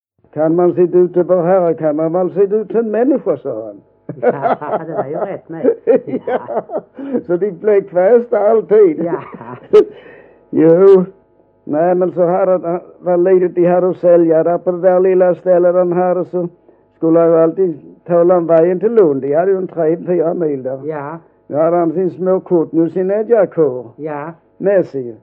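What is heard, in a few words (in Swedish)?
Vollsjö Skåne, dialekter, svenska sagor; Folkminnen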